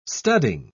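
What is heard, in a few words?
"studding", English, uk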